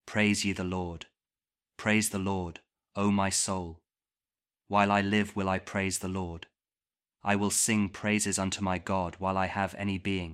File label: psalm kjv king james audio bible